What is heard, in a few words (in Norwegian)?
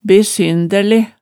"besyndæle", hemsedals maalet tale